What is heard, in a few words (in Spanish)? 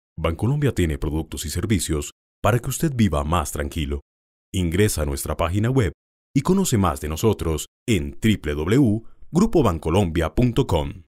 institucional